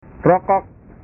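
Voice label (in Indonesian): "rokok", Indonesian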